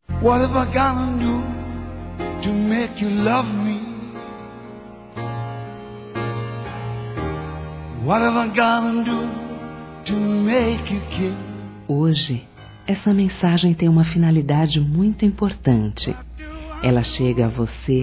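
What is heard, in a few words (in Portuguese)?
Padrinho - Feminino; Telemensagens; Telemensagens 2017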